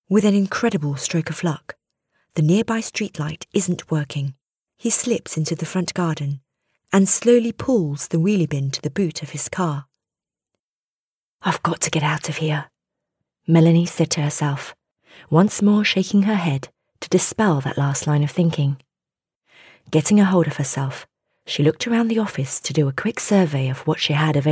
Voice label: Audio Books